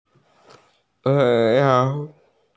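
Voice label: "cao3", Mandarin Chinese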